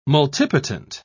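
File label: "multipotent", British English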